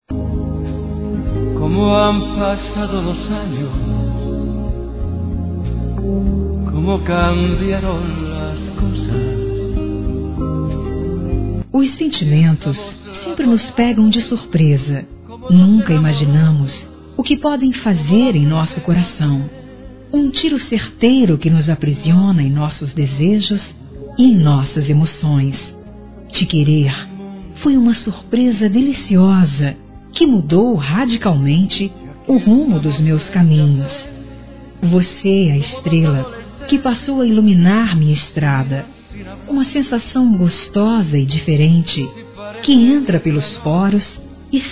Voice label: Suave Feminino, Telemensagens Românticas

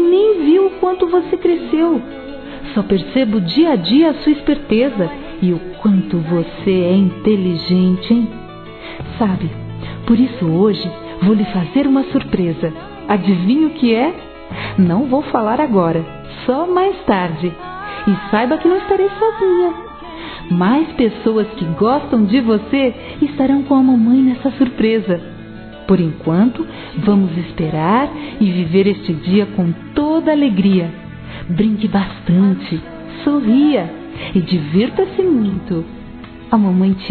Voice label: Filho Criança Feminino, Telemensagens Aniversário; Aniversários; Aniv Familiar

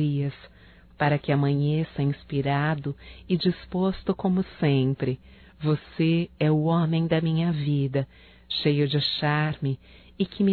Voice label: BOA NOITE ROMâNTICO, fem, Telemensagens; Bom dia, tarde e noite; Telemensagens 2017

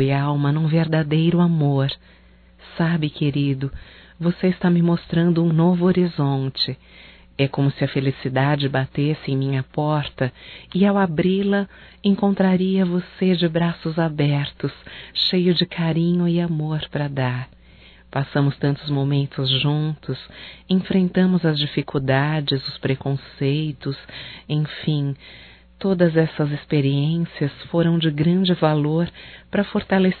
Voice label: AMAR VOCE(F), Românticas fem

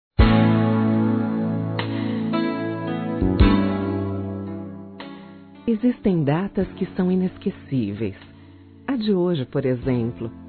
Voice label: Romantica Aniversário Namoro Feminino; Telemensagens; Românticas

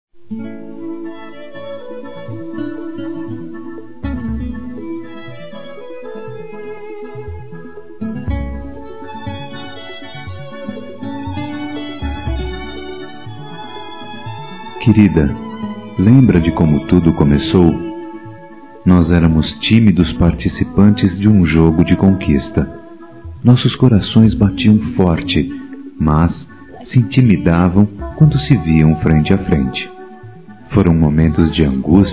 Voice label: Casados Masculino; Telemensagens; Dia Dos namorados; Telemensagens 2017

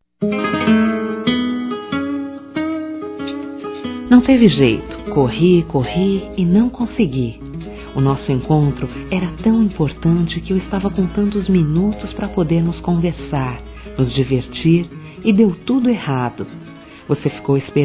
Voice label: Desculpas Por Não Ter Ido Femin, Telemensagens; Telemensagens 2017

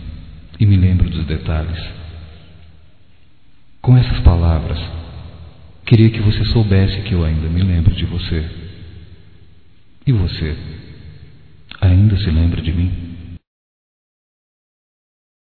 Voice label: Amor do Passado Masculino

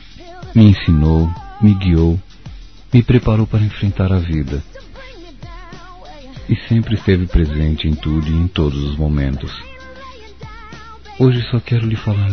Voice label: Dia das Mães Madrasta Masculino, Telemensagens; Telemensagens 2017

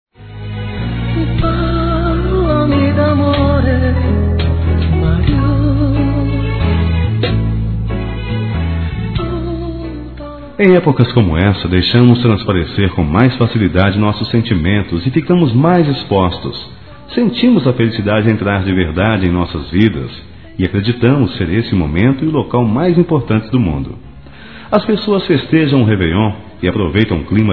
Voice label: ANO NOVO ROMANTIC M; Telemensagens; Natal e Ano novo; masc

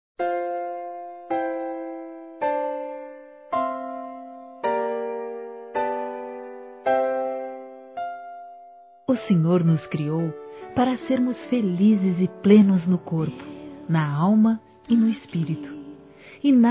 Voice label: OTIMISMO P-Q SE SEPAROU F, Gospel, fem; Telemensagens; Telemensagens 2017

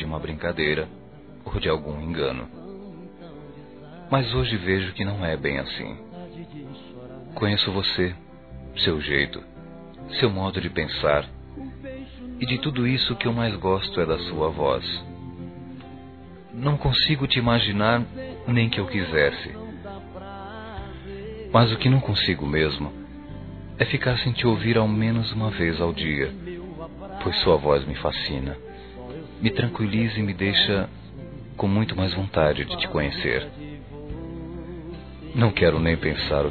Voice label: Namoro Telefone Masculino, Telemensagens; Telemensagens 2017

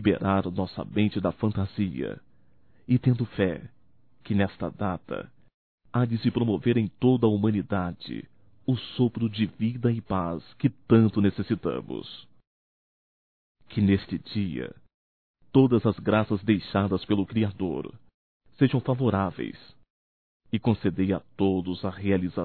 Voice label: Neutro Masculino, Natal; Natal e ano novo; Telemensagens 2017

